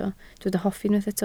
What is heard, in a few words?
"hoffi", Welsh